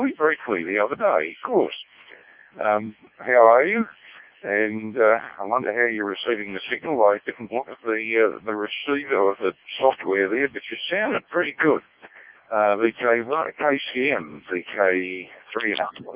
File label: decoded speech, parrot